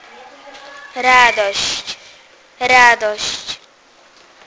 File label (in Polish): joy - radosc, Polish